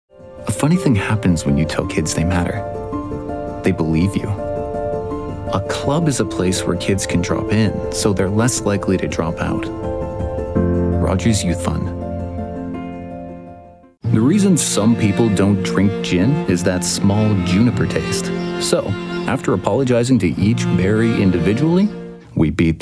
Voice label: commercial